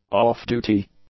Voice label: "off-duty", english; adjectives